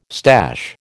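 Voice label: "stash", American English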